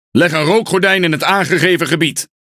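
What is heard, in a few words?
NL Speech Quick Commands Need Smoke Area, Dutch; World Of Warships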